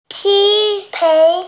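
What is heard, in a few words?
"ti1", Mandarin Chinese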